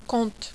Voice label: "compte", French